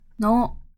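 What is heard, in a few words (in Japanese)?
"の", Japanese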